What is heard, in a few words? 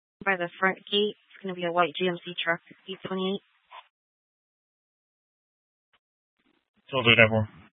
Scottsdale Police, Simulcast